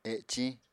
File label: "eciñ", Apatani